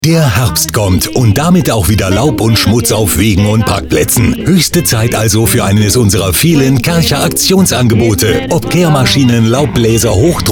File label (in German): radiowerbung beispiel; kärcher